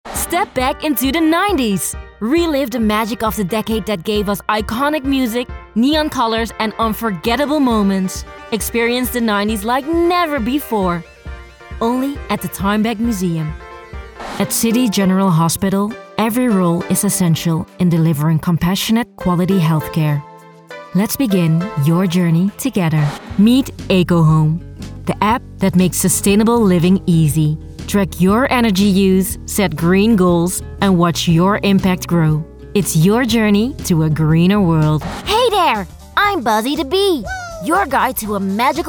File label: English